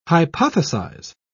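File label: "hypothesise", British English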